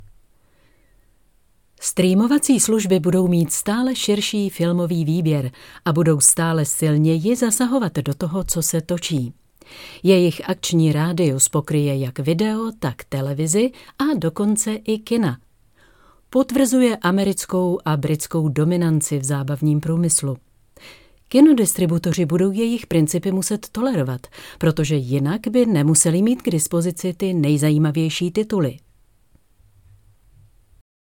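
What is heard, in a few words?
Czech, General; GoLocalise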